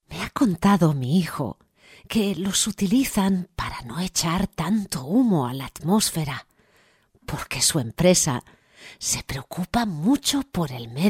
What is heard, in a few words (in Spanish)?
locutora abuela spanish voiceover; voces ancianos